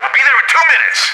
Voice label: radio in transit; Left 4 Dead 2; left4dead2 dlc3; boatman; npc